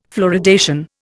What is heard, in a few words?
"fluoridation", Indian